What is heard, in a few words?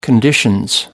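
"conditions", British English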